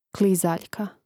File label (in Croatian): "klizaljka", Hrvatski; Hrvatski mrežni rječnik; imenica; Mrežnik